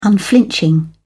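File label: "unflinching", English, uk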